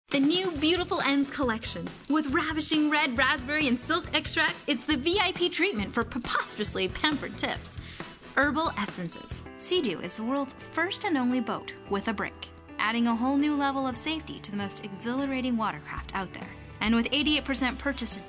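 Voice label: commercial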